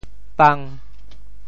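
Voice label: "pang5", Teochew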